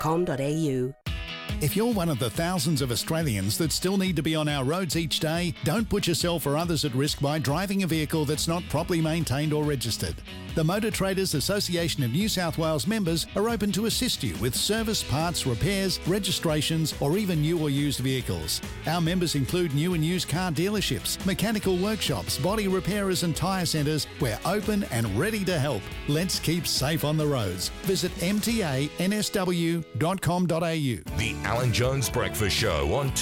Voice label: pre recorded radio commercial